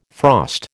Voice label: "frost", American